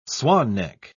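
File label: "swan-neck", British English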